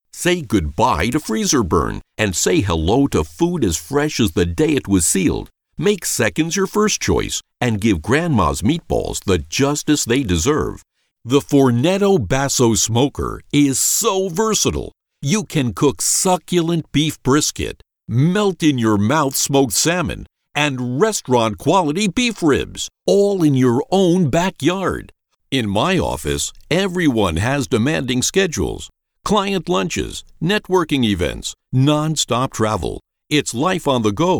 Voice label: Commercial Demo Reel

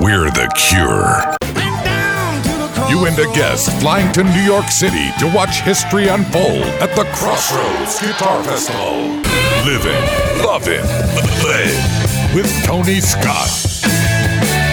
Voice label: Rock Imaging

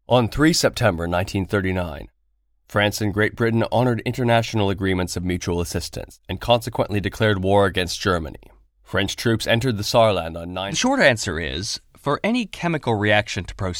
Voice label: documentary

